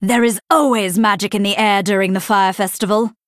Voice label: Female Night Elf Picked